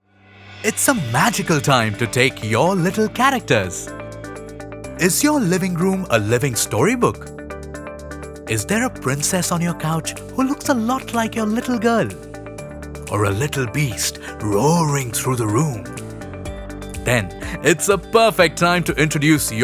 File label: english announcements; Hindi Voice Actor